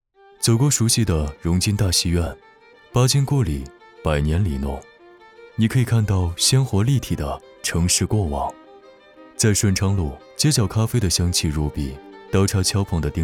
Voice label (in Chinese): 年轻时尚; 中海